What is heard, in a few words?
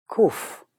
"Koof", Hebrew; hebrew introduction